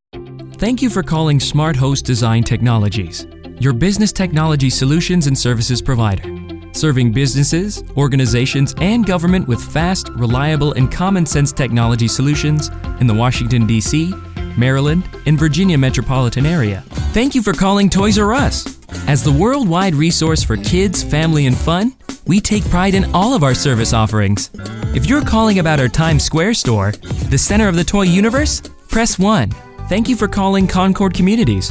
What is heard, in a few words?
ON HOLD